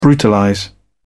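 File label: "brutalize", English, British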